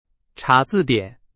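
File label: Chinese, example sentence; hsk2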